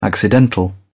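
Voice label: "accidental", British English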